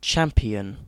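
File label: "champion", British English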